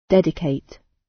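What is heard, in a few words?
"dedicate", British English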